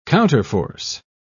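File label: "counterforce", British English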